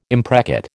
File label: "imprecate", American